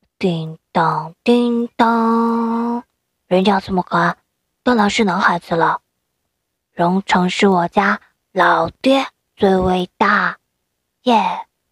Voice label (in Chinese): 男童; 人家这么可爱当然是男孩子了